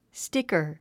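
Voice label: "sticker", English word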